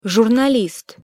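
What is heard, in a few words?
"zurnalist", Russian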